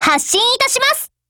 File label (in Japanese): ATTACK JP; 81typeR